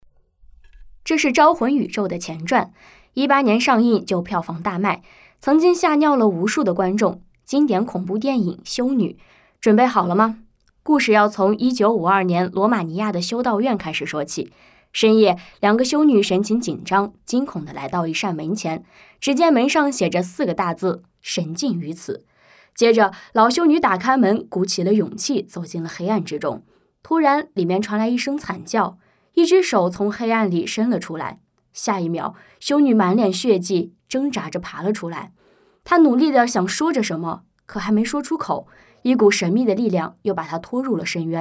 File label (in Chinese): 鬼片短视频解说; 招魂